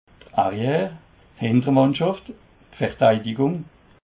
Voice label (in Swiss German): "arriere", alsace